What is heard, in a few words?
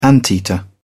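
"anteater", British English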